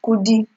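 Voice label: "kudi", Nigerian Pidgin, female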